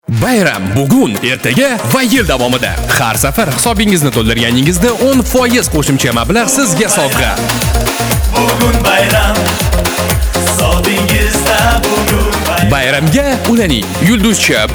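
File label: male Uzbek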